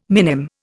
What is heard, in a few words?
"minim", Indian